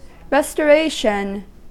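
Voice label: "restoration", US English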